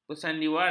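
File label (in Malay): "bersandiwara", Malay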